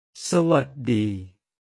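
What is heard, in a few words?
"sa wat dii", Thai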